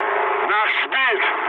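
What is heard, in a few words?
Russian aircraft destroyed mood low, speech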